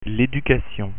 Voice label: "education", French pronunciation